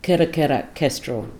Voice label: "kerre-kerrek" kestrel

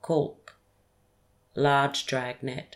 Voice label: "kulk" large drag net

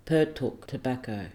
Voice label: "purtuk" tobacco

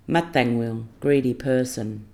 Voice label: "mathang wil", greedy person

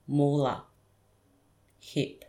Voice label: "mula" hip

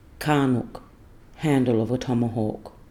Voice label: "karnuk" handke of a tomahawk

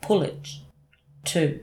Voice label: "pulety" two

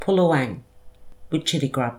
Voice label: "puluwang" wichetygrub

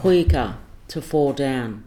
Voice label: "puyika" to fall down

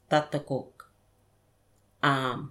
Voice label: "thathakuk" arm

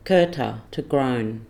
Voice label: "kurta" to groan

